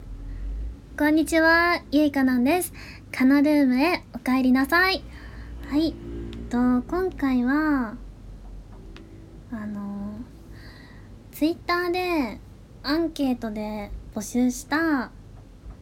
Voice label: OPトーク, ASMR; 博多弁カノジョとイチャラブぎじせっくす〜同棲編〜

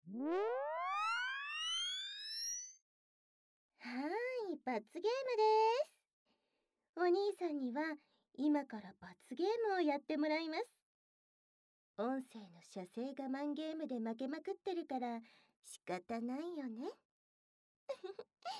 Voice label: 優しい耳舐め手コキの罰ゲーム（クチュ無しシコボイス版）; 蟻地獄; The 罰ゲーム1; マゾ向け射精我慢ゲーム

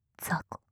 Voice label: "ざこ", 極近, 右; マゾオスのあなたに敗北射精の快楽を叩き込む音声

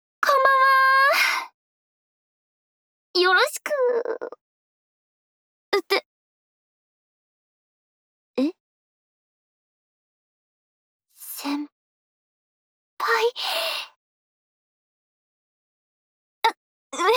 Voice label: ボイスのみ, ASMR; SEなし

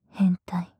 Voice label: "変態", 極近, 左; マゾオスのあなたに敗北射精の快楽を叩き込む音声

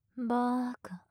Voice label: "バーカ" 近 左, ASMR

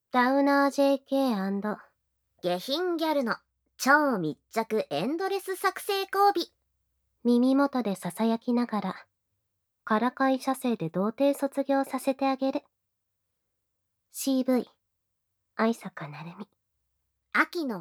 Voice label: タイトルコール, ASMR

